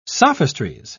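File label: "sophistries", British English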